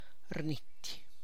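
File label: is missing something, Tlacochahuaya Zapotec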